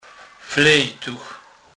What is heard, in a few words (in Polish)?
"flejtuch", kurpiow